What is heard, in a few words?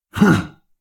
guard male; SyrinScape; Waterdeep